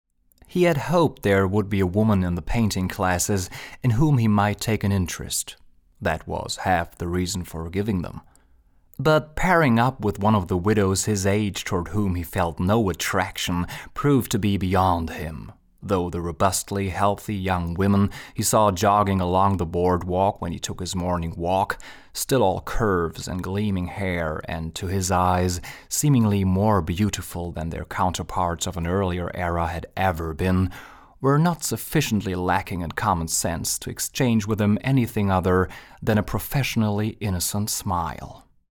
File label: english